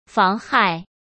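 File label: "妨害" Mandarin Chinese; chinese-flashcards; hsk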